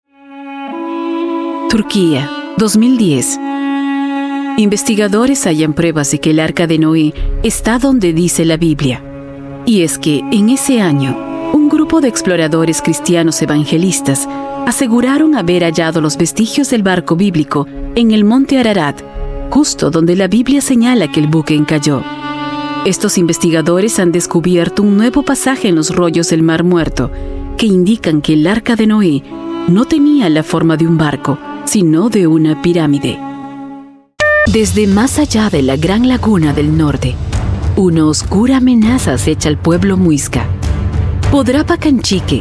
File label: spanish voice over female documentary